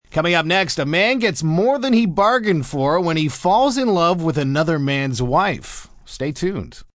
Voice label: Bargained For M, Broadcast, announcer, breakaway, male